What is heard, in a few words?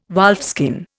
"wolfskin", Indian